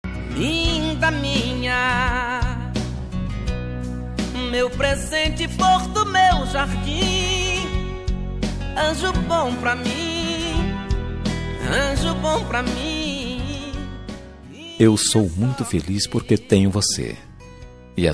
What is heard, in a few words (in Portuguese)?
dn gospel namorado mas, dia dos namorados para namorada evangelica voz masculina